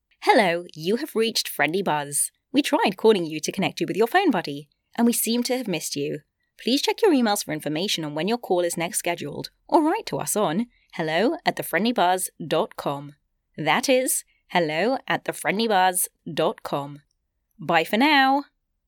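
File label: english british interactive voice response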